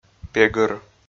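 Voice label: "пегыр", Komi, male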